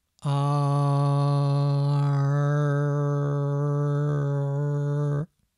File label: aaaarrrrrr retroflex